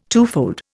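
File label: "twofold", Indian English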